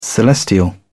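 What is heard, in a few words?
"celestial", British English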